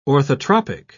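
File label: "orthotropic", British English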